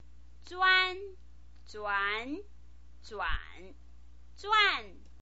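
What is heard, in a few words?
"zuan", Mandarin Chinese pinyin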